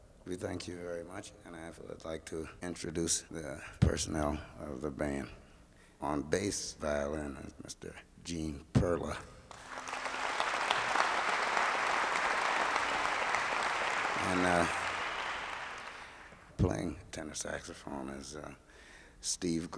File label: Announcement